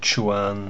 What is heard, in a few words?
"quan", pinyin sounds; Mandarin Chinese Pronunciation